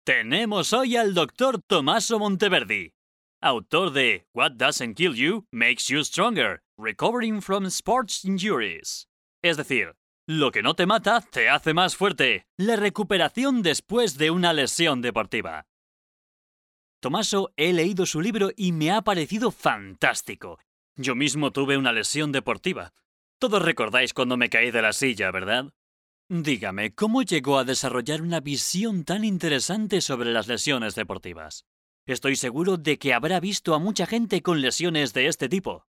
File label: spanish tv shows